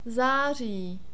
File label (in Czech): "zari", Czech